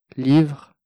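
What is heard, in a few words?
"livre", French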